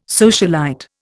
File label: "socialite", Indian